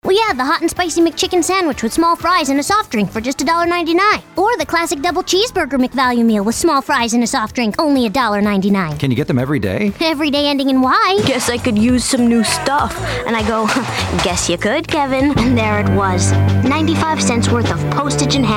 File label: Commercials